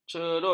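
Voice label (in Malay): "cerut", Malay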